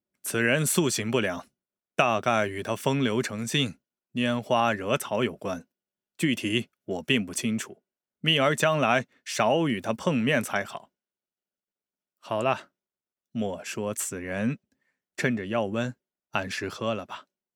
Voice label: 青叔音

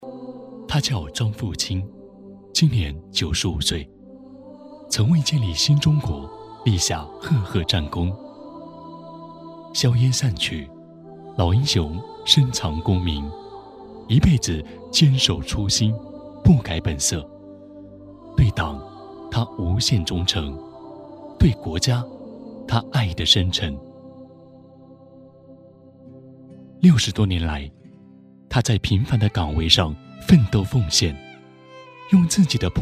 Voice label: 公益广告; 张富清

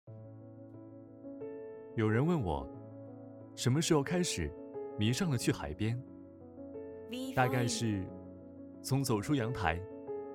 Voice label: 夏天年轻旁白

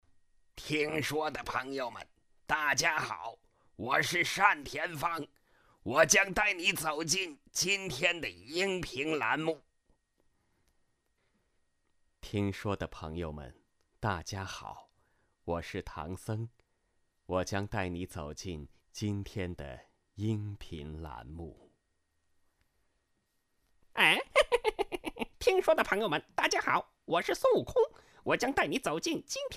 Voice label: 模仿

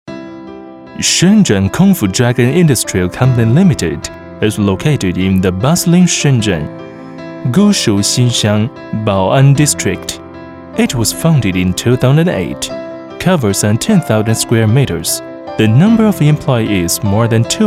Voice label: 英文配音

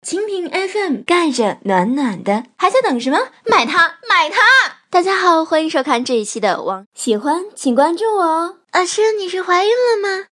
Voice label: 广告部分混剪

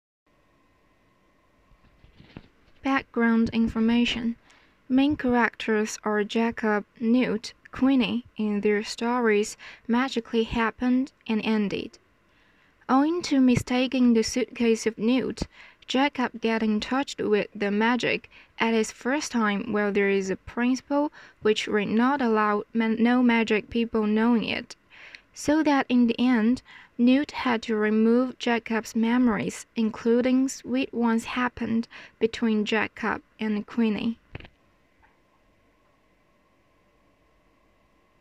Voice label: 广播剧旁白

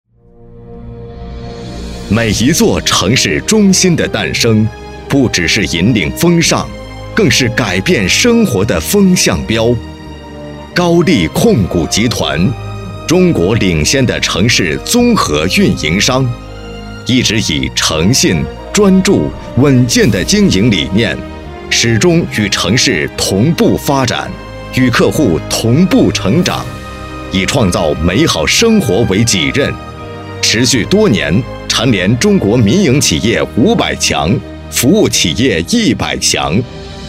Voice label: 专题恢弘叙述; 高丽控股集团

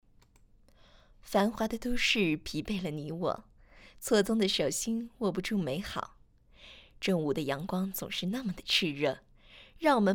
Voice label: 情感类

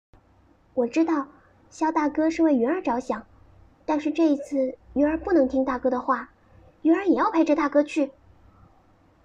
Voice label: 少女音

